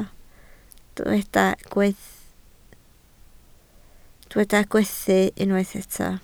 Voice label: "gweddu", Welsh

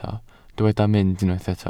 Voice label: "mynd", Welsh

